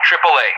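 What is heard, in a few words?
"AAA", English; Target; DCS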